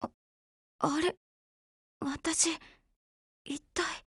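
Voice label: RETREAT, Japanese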